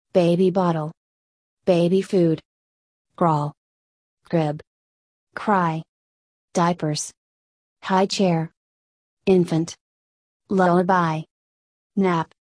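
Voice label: American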